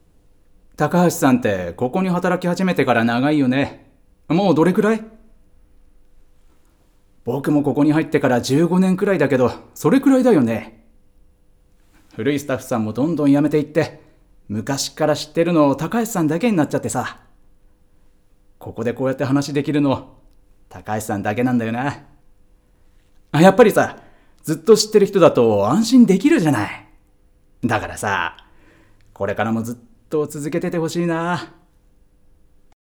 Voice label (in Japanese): 老け